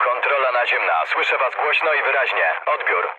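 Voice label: Polish chat; War Thunder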